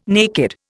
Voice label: "naked", Indian